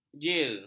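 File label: "jir", Malay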